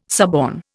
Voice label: "suborn", Indian